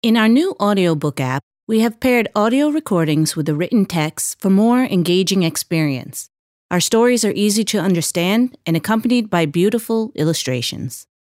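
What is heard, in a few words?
English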